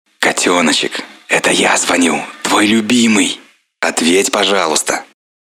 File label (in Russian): Звонок от любимого парня - Котёночек, ответь, пожалуйста